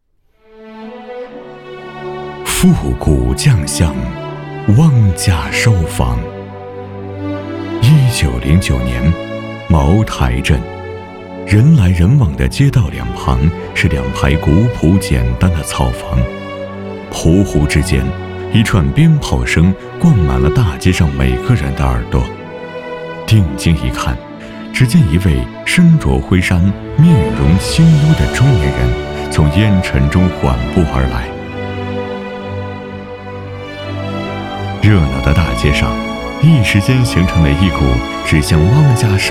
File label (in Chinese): 男, 酒水宣传片; 金酱故事